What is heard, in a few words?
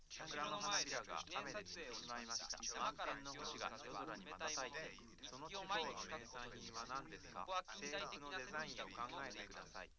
male liverec